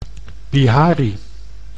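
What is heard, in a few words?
"Bihari", Dutch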